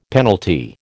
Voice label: "penalty", American